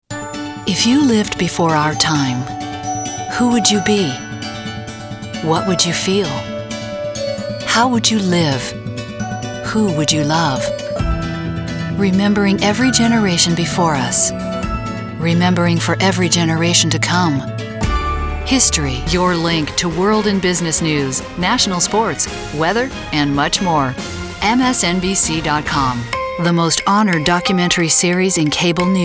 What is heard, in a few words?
women promos